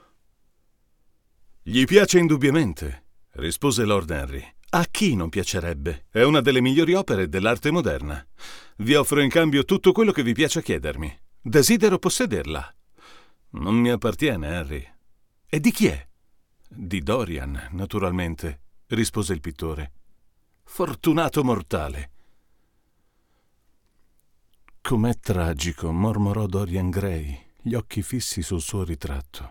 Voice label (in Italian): sEX1T SOVTEK per confronto vs U87AI